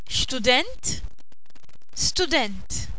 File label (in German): student, Maltesisch; berufe